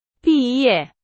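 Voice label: Chinese; hsk4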